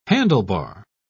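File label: "handlebar", British English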